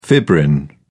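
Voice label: "fibrin", English, British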